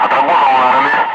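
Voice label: Russian speech, Ka-50 Wingman; Messages rockets away; DCS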